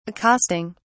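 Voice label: "accosting", British English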